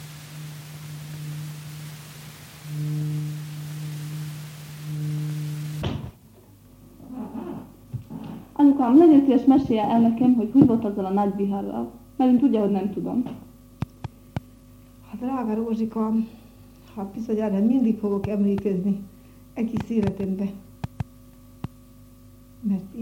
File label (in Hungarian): nyelvjaras gyujtesek